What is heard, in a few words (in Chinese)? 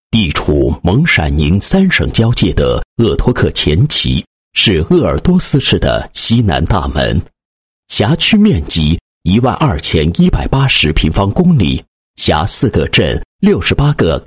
男 法院, 配音; 恪守天平 司法为民